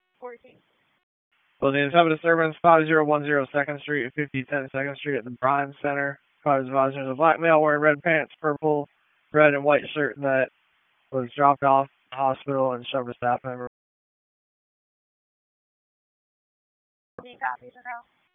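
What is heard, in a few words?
Raleigh Police Northeast District Dispatch, p25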